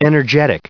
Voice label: "energetic", en-us, recite